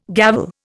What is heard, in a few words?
"gavel", Indian